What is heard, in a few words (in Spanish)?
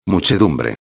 "muchedumbre", espanhol; palavra do dia